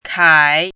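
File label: "kai" third tone, pinyin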